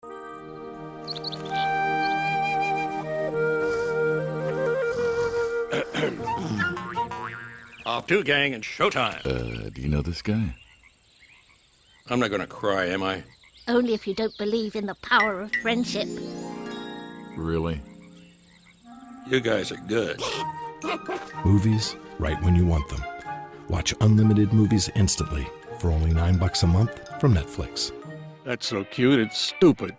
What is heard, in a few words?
Tiger voice over; Netflix Animation